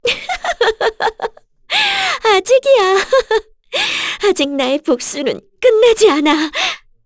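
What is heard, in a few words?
Vox Victory, Korean; FreyB; kingsraid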